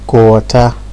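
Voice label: "cold water", Nigerian Pidgin, male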